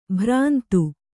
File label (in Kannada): "ಭ್ರಾಂತ", Kannada